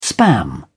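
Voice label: "spam", English, British English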